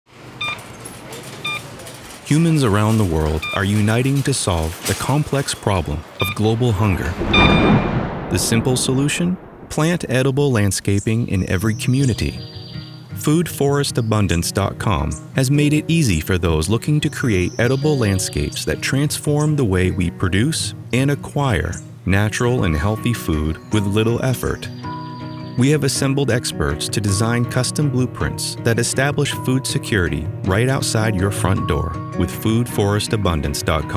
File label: Ad; FoodForrestAbundance